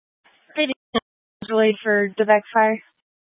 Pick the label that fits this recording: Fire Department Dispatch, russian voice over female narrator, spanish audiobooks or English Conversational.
Fire Department Dispatch